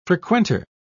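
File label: "frequenter", British English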